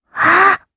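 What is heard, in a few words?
Casting, Japanese; Lilia; kingsraid; jp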